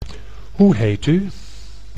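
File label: "Hoe heet u", Dutch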